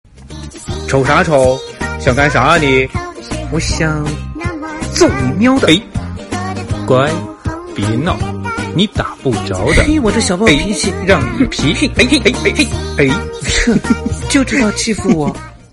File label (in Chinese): 精分萌猫